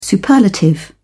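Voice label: "superlative", English, British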